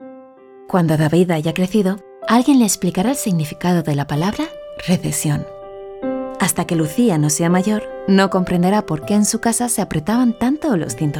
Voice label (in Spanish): español dulce